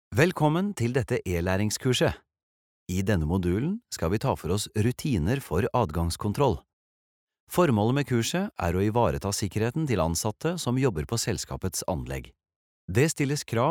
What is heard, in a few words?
no elearning